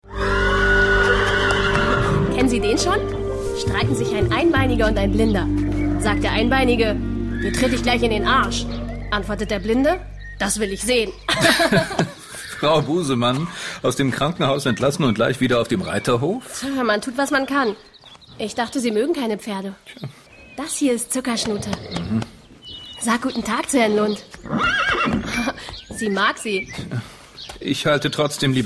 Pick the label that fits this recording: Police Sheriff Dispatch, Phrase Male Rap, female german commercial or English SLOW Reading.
female german commercial